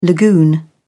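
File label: "lagoon", British English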